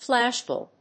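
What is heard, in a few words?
"flashbulb", English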